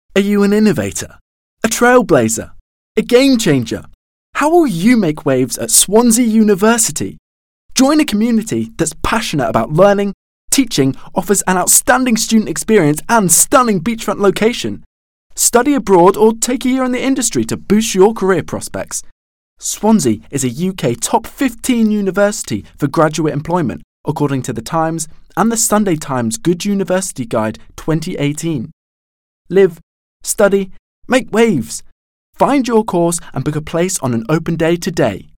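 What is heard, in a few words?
English UK, commercial, RP; GoLocalise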